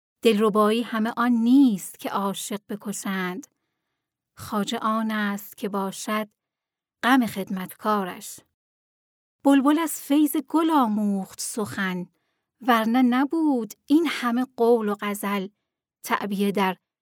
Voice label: female farsi voice over narrative